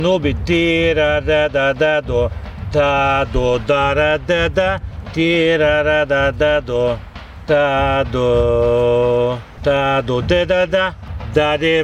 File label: Chetvorno Line Spoken, Small Gajda Lessons